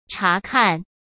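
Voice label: "察看", Mandarin Chinese; hsk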